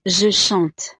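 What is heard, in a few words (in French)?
"je chante", French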